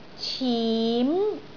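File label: "cim2", Cantonese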